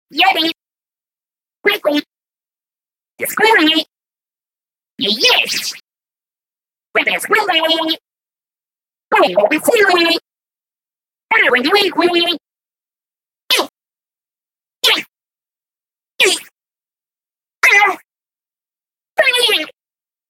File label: Male inkling sounds; Splatoon